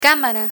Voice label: "camara", Spanish

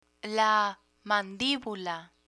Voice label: "mandibula", Spanish

